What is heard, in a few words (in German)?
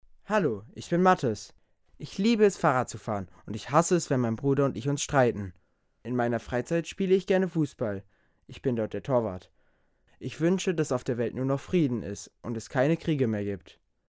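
intro, Kindersprecher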